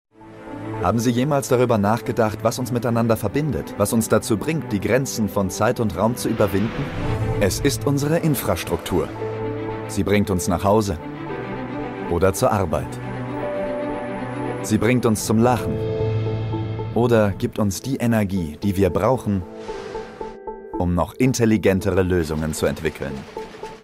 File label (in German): Spot